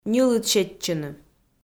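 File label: "ньылыд сетчыны", Komi, female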